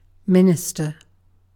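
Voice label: "minister", British English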